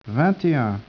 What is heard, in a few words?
speech, French